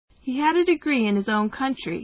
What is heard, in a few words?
M